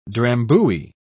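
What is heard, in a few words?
"drambuie", British English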